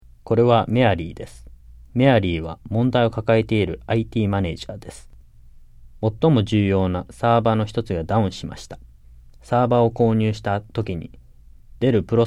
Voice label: JAPANESE; delltranslations